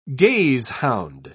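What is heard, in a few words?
"gazehound", British English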